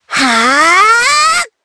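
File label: Vox Casting Japanese; Hanus; kingsraid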